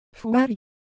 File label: Japanese Motion, Ideo Phones